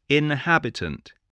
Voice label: "inhabitant", spelling voice